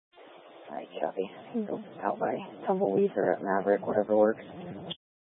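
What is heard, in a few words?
Sheriff Dispatch, Scanner audio recording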